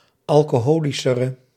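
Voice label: "alcoholischere", Dutch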